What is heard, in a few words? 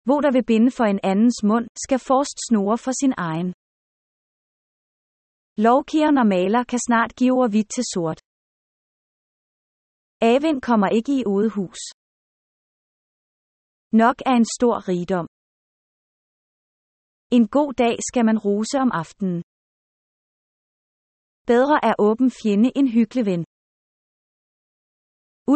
danish proverbs